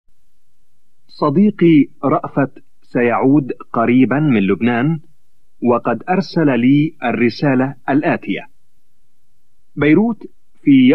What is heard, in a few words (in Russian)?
аудирование арабский; Блок аудирование демоверсия 10-11 этап 2